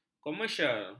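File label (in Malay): "komersial", Malay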